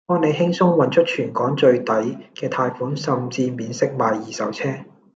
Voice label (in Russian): Голоса, Гонконгский